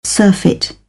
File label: "surfeit", British English